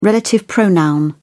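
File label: "relative pronoun", British English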